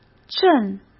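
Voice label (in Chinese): "阵", Mandarin Chinese; hsk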